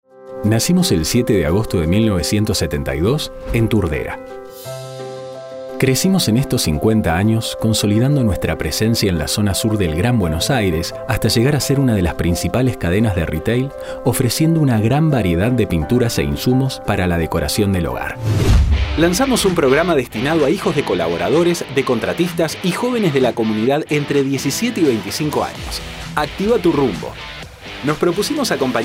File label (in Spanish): institucionales